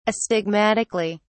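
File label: "astigmatically", British English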